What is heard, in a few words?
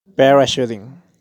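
"Parachuting", pronunciation; Deportes; sports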